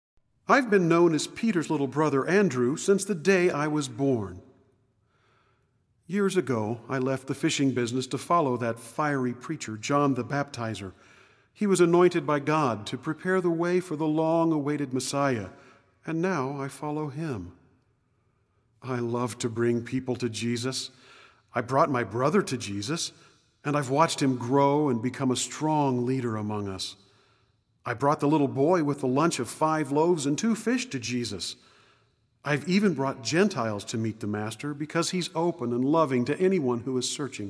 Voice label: Monologue; Andrew; living last supper